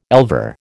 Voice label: "elver", American

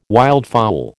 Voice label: "wildfowl", American

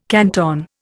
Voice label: "canton", Indian

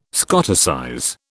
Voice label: "Scoticize", British

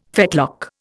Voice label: "fetlock", Indian

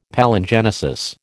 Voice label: "Palingenesis", American

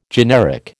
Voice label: "generic", American

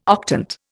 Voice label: "octant", Indian

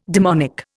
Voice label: "demonic", Indian

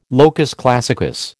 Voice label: "locus classicus", American

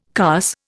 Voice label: "carse", Indian

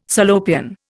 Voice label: "Salopian", Indian

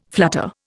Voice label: "flutter", Indian

